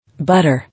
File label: "Butter", English